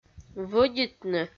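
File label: "вӧдитны", Komi, female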